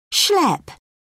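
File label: "schlep", British English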